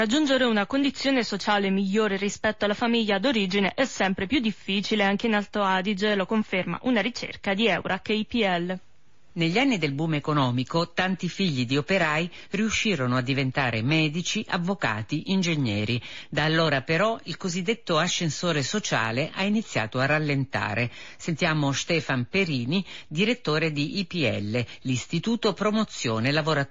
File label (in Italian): Radio; Rai Südtirol; Ascensore sociale bloccato anche in Alto Adige Giovani più penalizzati